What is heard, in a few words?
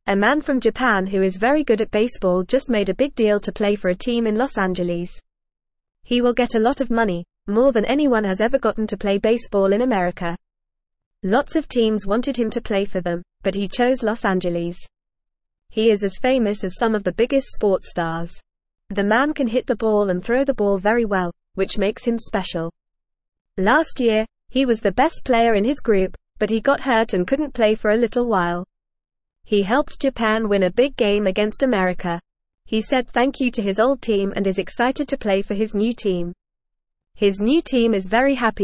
English Beginner FAST Reading; Japanese Baseball Star Signs Big Deal with Los Angeles; English Newsroom